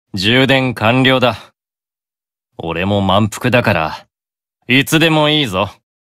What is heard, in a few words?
AP Notification Voice; Beelzebub